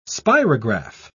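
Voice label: "spirograph", British English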